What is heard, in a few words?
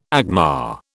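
"agma", British English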